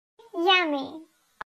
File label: yummy detskiy